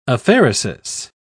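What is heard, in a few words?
"apheresis", British English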